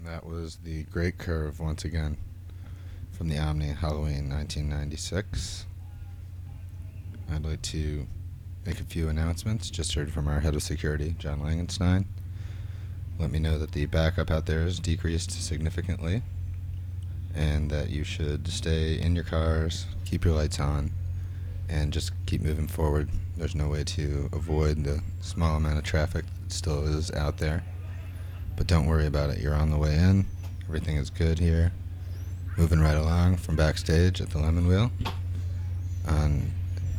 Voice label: radio banter; Lemonwheel

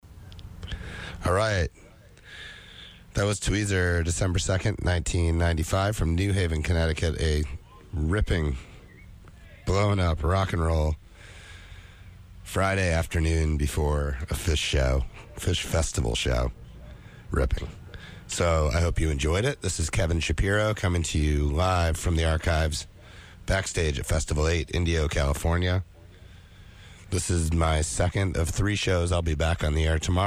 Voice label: radio banter; Festival 8

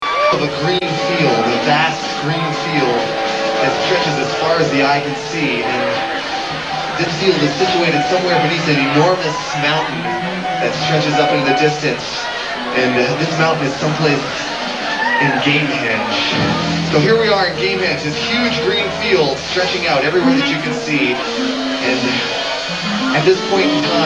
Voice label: Rhombus Narration

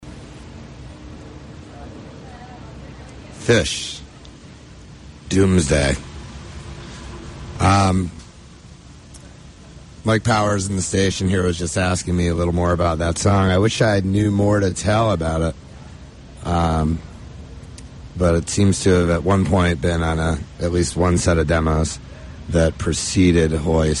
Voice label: radio banter; Bonnaroo

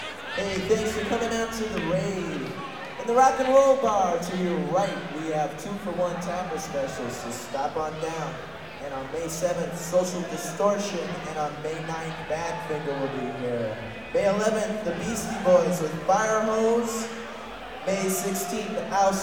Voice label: announcements

